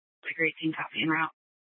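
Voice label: police department Dispatch, scanner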